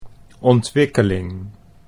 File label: "ontwikkeling", Dutch